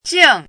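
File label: "jìng", Mandarin Chinese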